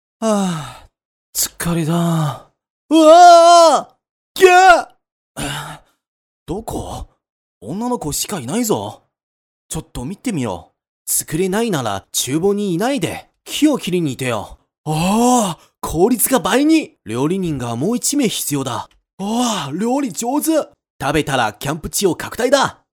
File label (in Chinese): 男 日语信息流